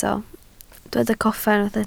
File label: "coffa", Welsh